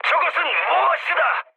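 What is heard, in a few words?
Korean trick mood high; War Thunder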